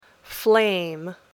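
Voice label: "flame", English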